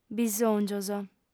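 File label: "bisòngios", female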